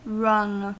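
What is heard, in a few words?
"wrung", US English; pronunciations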